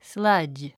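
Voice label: "sludge", English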